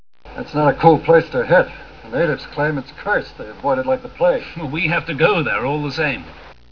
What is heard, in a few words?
zombie